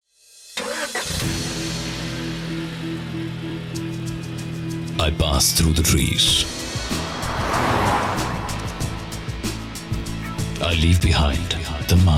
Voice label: Radio Spot; Honda

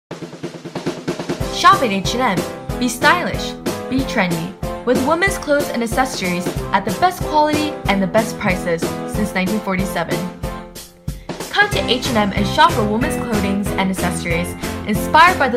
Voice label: Radio Commercial

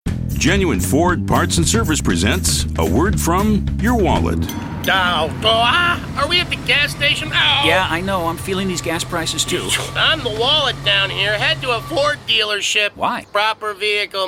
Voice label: Radio Commercial; Genuine Ford Parts & Service; 'Wallet'

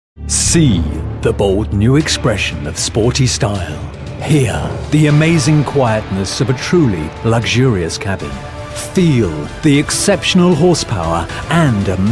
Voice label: Radio Ad; Toyota

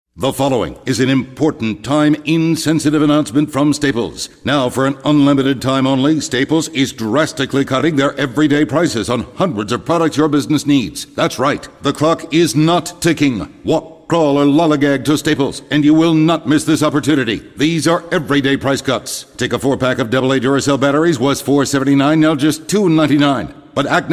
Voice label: Radio Commercial; Staples; 'Unlimited Time'

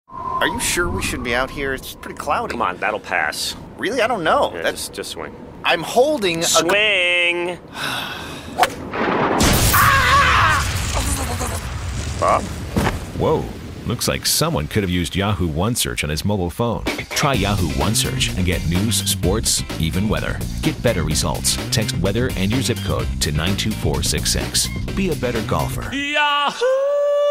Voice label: Radio Commercial; Yahoo; 'Golfer'

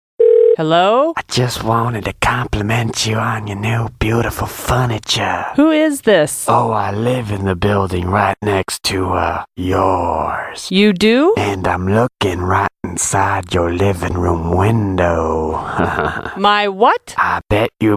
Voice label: Radio Commerical; Ikea

